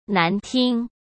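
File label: "难听", Mandarin Chinese; chinese-flashcards; hsk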